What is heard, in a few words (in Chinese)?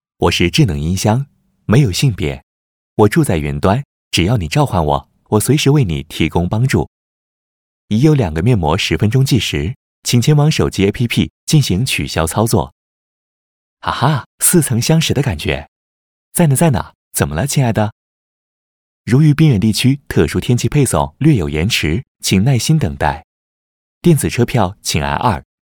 多媒体 广播提示 语音提示